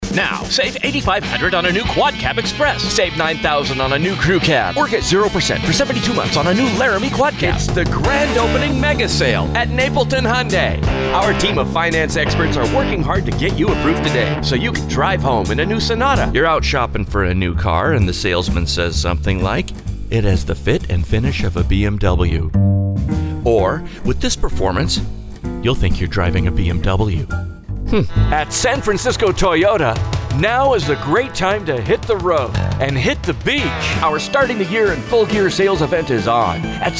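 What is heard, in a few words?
Car Dealer, voice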